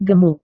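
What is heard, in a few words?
"gemuk", Indonesian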